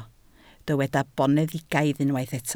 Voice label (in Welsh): "boneddigaidd", Welsh